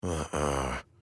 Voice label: Emote Negative